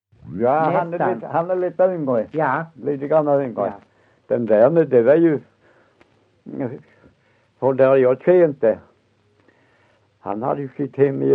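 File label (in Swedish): Össjö Skåne, dialekter, svenska sagor; Folkminnen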